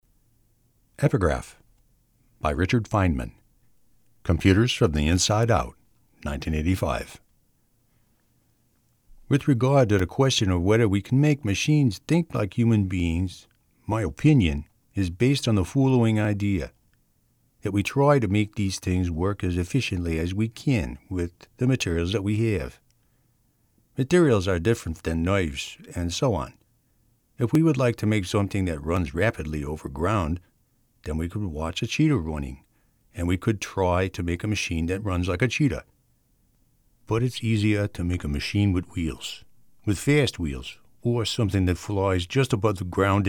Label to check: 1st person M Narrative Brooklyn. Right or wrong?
Right